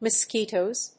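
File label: "mosquitos", English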